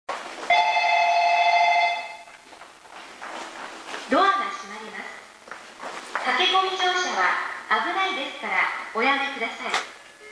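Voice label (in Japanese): 駅放送, 旧放送 1番線 発車; 音質が良いが高音割れる時あり 一部音がこもり気味です。; toyo-katsutadai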